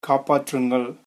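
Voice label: help, Tamil, male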